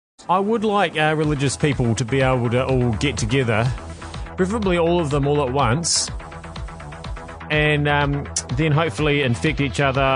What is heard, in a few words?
Radio